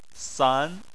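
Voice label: "san" tone 2, Chinese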